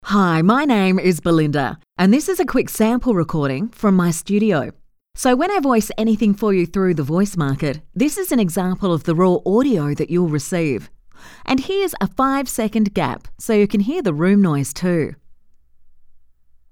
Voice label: Studio Sound

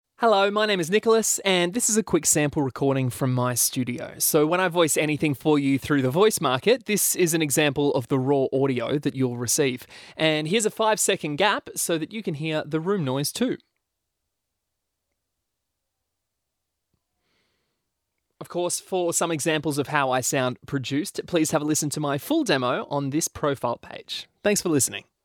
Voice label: Studio Sound Check

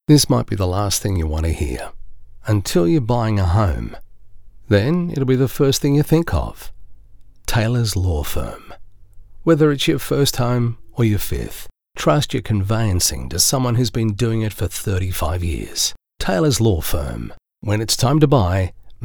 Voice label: Conversational

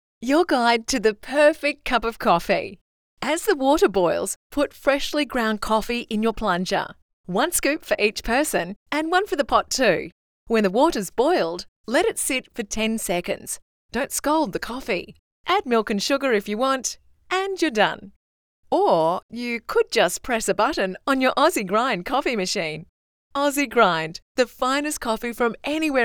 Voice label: Conversational

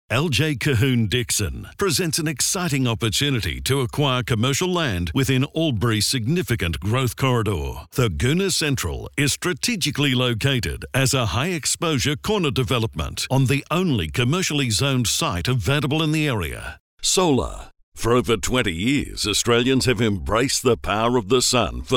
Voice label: Retail Friendly

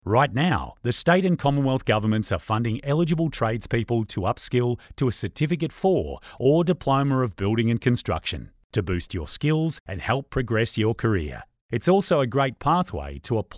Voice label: Relaxed

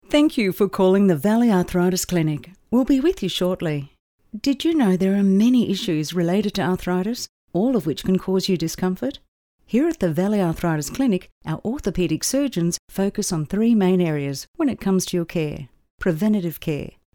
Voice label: On Hold

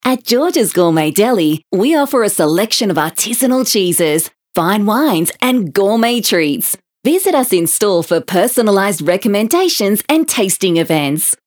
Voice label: On Hold

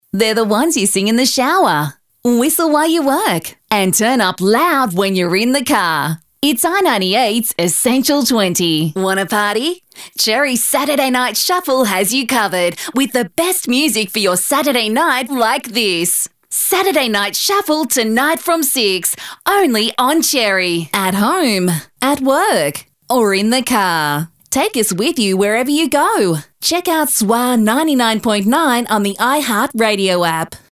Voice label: Radio Imaging

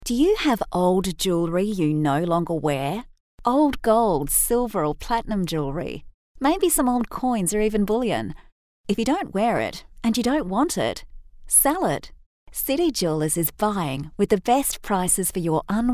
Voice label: Conversational

